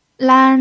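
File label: "lan", Mandarin Chinese pinyin, tone 1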